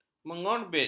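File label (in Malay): "mengorbit", Malay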